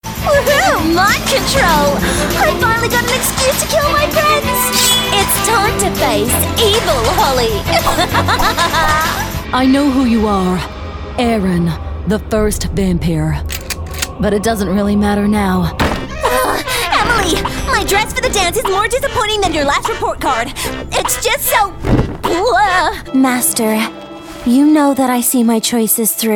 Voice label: English Australian animation

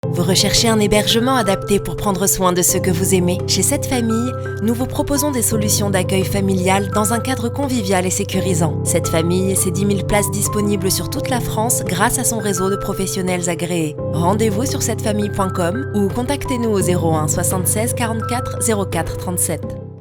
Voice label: french commercial

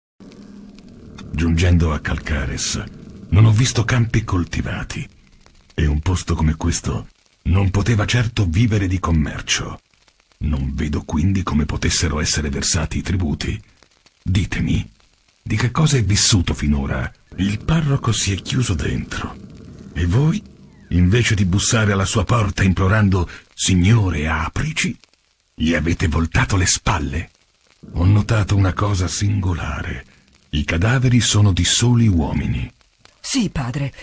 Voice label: italian video games

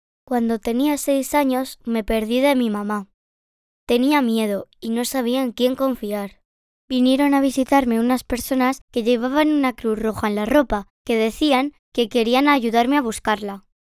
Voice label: spanish narration, spanish voice actors

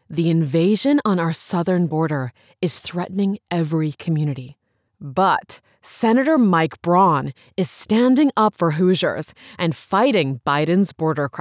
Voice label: english international political ads

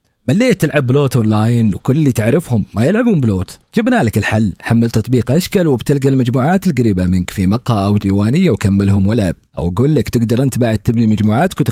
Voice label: arabic promos, voice actors

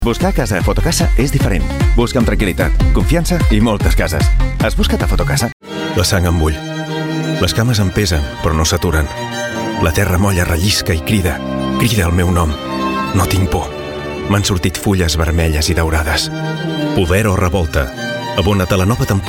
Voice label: catalan commercial

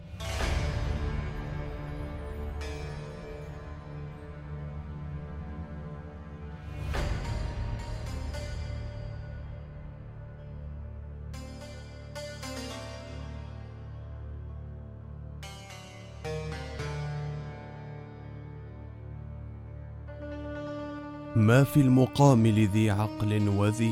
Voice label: arabic narration

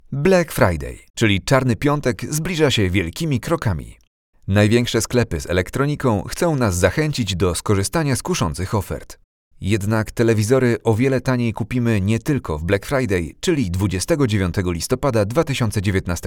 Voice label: Polish promos; I am a Polish native speaker and I have been recording in my own studio for several years

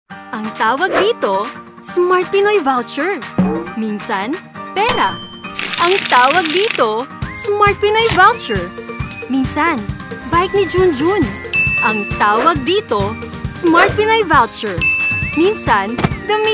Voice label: tagalog animation

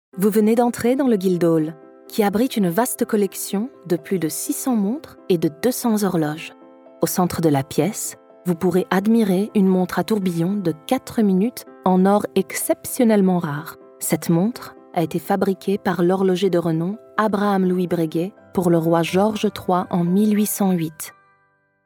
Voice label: french audio guides

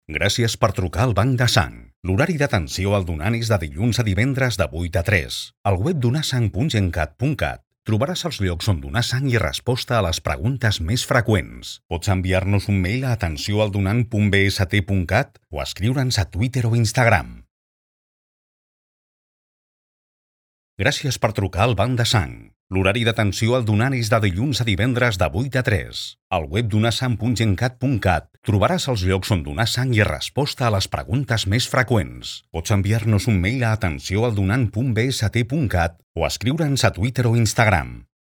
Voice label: Catalan IVR

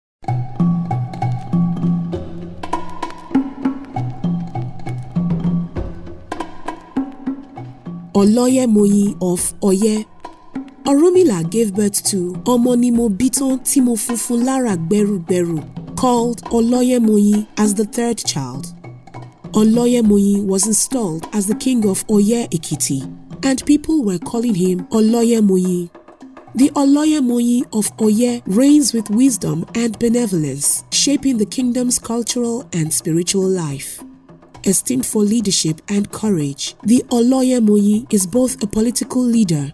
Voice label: english african documentaries

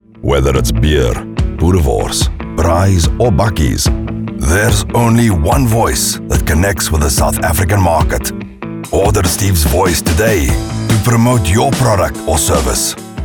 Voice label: english south african

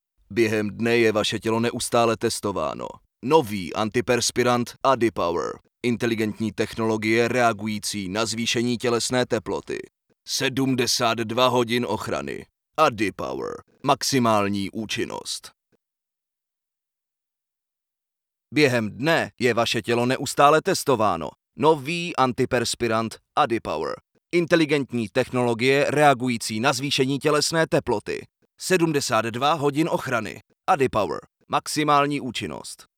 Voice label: czech commercial

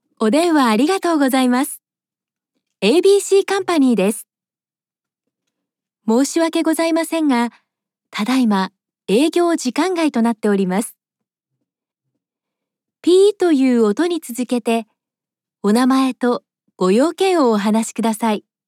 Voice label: japanese ivr

